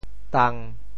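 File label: "tang1", Teochew; 潮州; 象声词，撞击金属器物的声音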